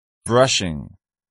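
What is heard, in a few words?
"brushing", English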